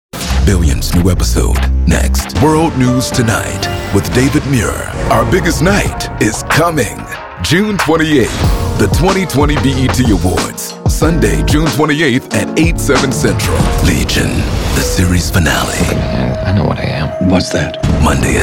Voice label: Promo

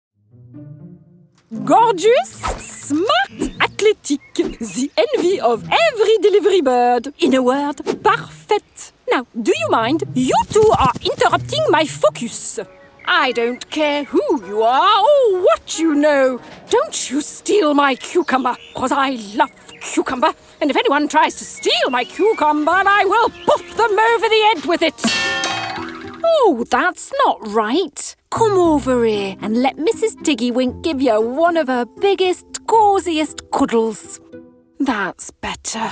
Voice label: English Animation

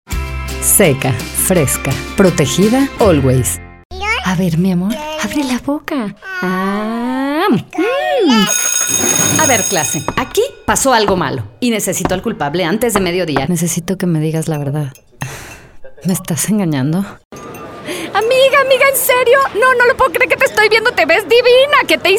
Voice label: commercial

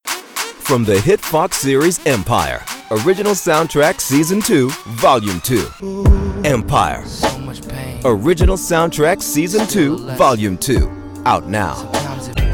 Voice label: Promo

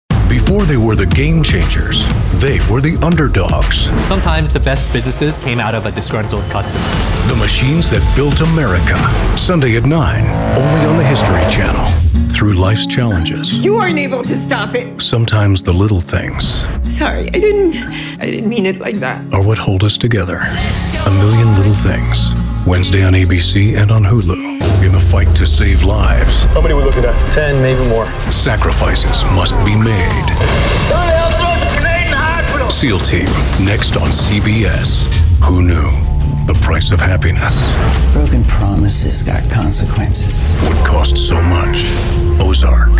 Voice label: TV Promo

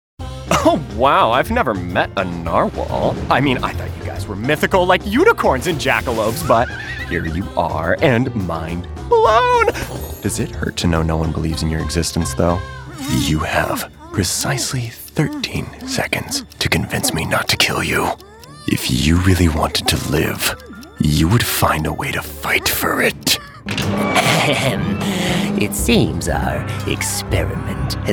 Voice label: Character

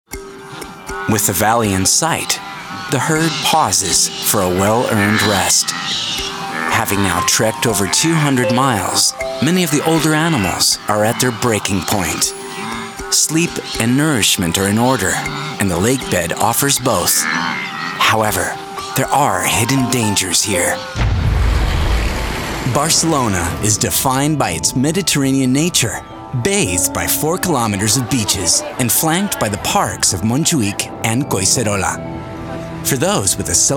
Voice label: TV Narration English

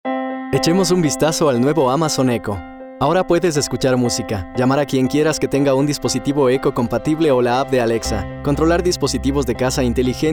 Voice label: Male Corporate Spanish

